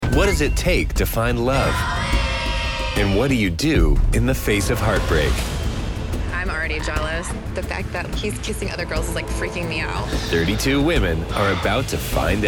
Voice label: Promo Reality

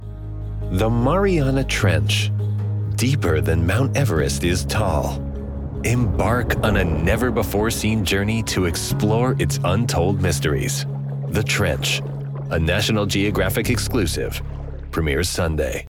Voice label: Promo Nature

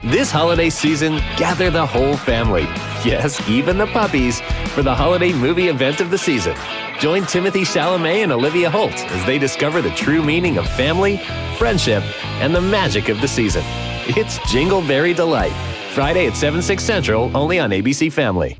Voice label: Promo Family

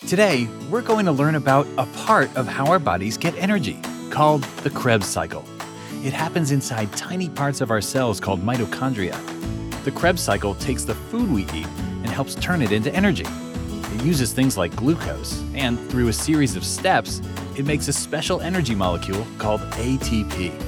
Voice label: eLearning Children

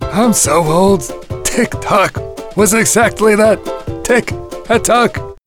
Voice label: Animation Old Man

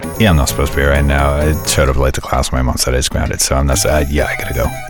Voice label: Animation High School Slacker

